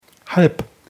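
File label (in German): "halb", German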